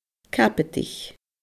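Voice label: "cabadaich", Scottish Gaelic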